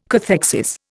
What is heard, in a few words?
"cathexis", Indian